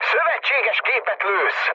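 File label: player friendly fire, mood med, Hungarian; War Thunder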